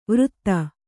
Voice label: "ವೃತ್ತ", Kannada

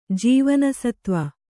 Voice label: "ಜೀವನಸತ್ವ", Kannada

